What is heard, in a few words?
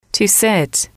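"to sit", English, female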